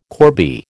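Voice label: "corbie", American English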